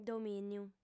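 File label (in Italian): "domìniu", lingua sarda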